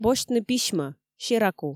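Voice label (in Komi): босьтны письмӧ сераку, Komi, female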